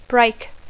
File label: "break", English